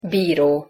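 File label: "biro", Hungarian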